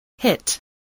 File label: "hit", English